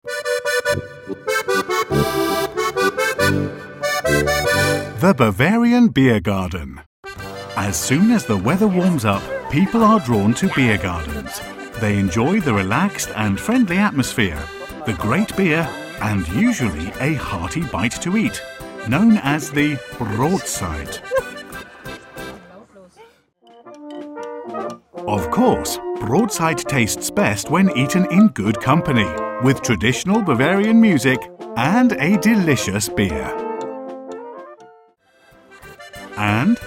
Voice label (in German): Eng UK Kommentar Freundlich